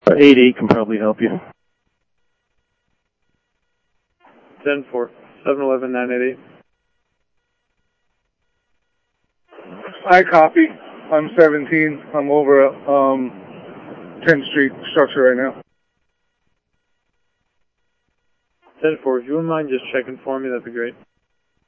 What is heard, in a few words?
scanner audio trunk; ASU Park 1